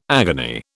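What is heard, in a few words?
"agony", British English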